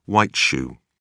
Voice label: "white-shoe", uk